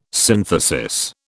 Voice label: "synthesis", British